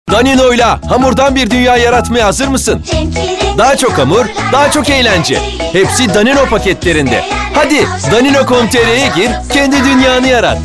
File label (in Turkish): reklam; danone